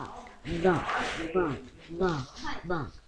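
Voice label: "bog", spoken word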